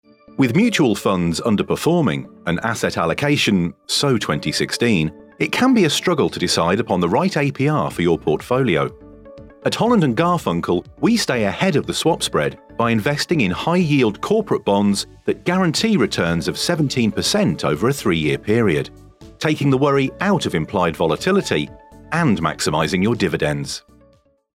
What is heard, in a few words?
FINANCE PRESENTATION